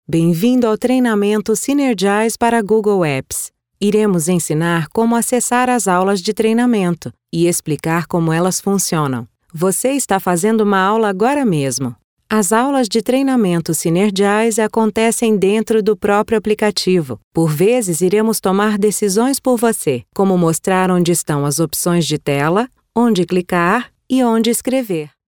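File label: Brazilian Portuguese informative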